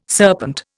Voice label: "serpent", Indian